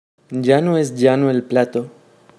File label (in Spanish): Ya no es llano el plato yeista